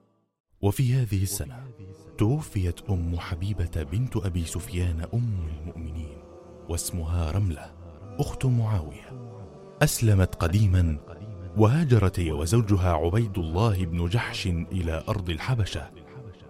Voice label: عينة حزين

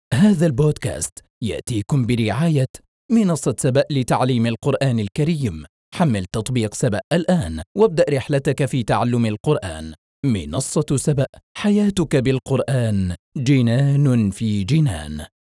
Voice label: البودكاست

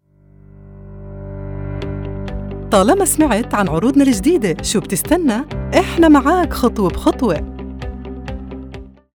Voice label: أردني فلسطيني عامية

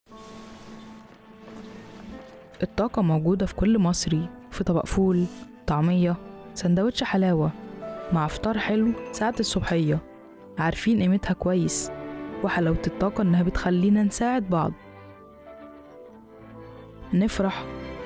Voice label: حماسي; الطاقه

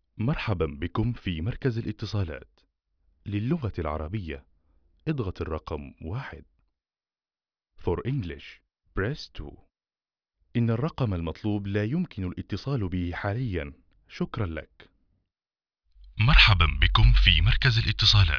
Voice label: الرد الآلي

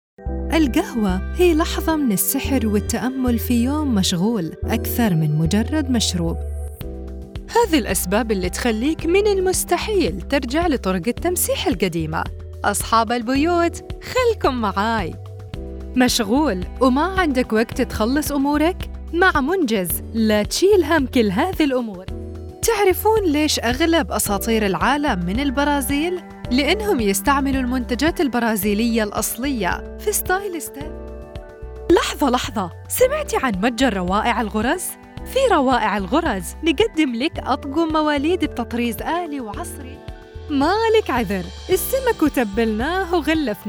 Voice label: عينة أعمال بلهجة خليجية

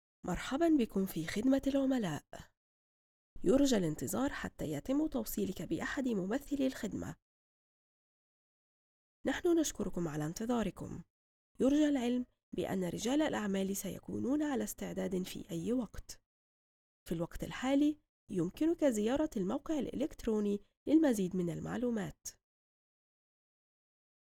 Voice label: رد ألى باللغة العربية الفصحى

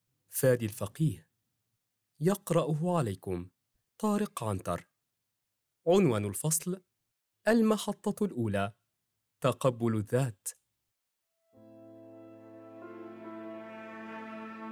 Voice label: كتاب صوتى; المتنفس

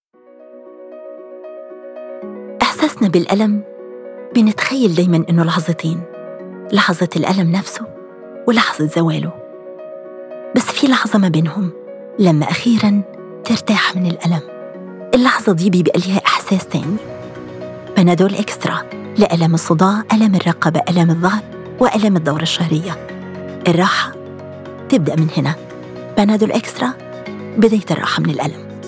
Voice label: إعلان; بانادول إكسترا

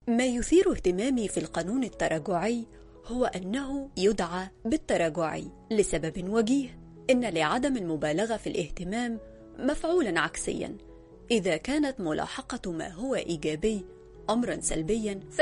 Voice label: وثاىقي

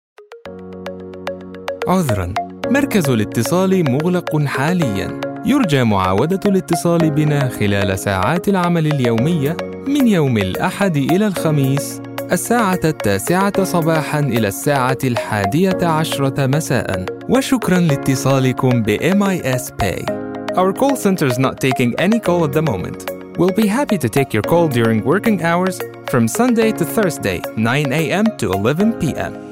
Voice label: demo reel باللغة العربية الفصحى

